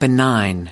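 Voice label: "benign", US English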